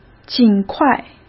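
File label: "尽快", Mandarin Chinese; hsk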